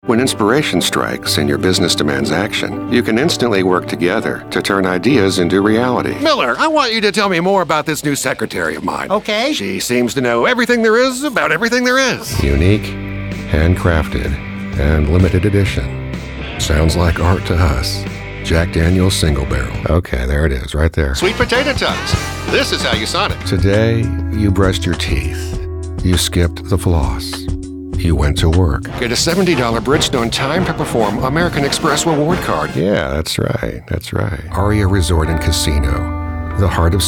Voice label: Commercial